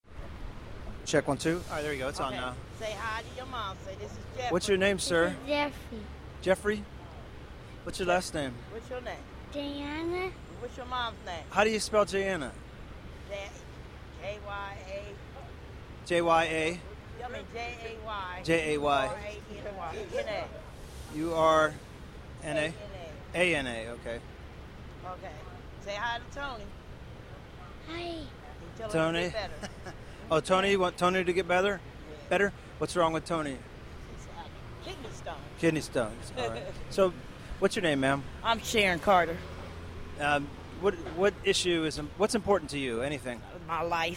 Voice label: vox pop